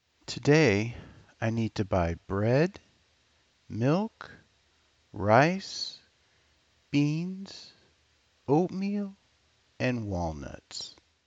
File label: list Intonation